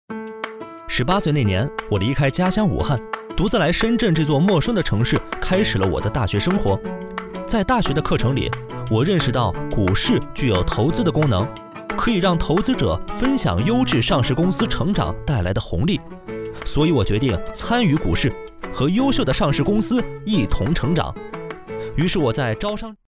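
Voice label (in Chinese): 男国大音-年轻活力自然MG动画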